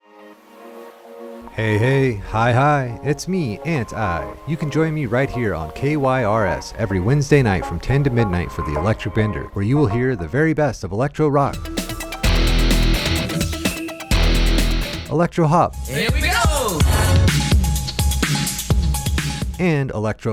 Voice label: PROMO; Electric Bender; KYRS Locally Produced Programming